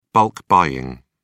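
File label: "bulk buying", British English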